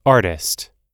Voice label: "artist", us